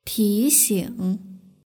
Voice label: "tixing", Chinese; hsk4

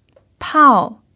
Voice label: "pao4", chinese pinyin

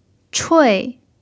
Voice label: "chui4", chinese pinyin

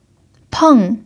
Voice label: "peng4", pinyin

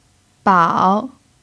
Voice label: "bao3", pinyin

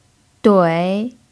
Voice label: "dui3", chinese pinyin

